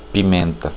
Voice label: pepper, portuguese